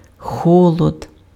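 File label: "холод", Ukrainian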